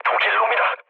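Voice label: Korean enemy detected mood low, speech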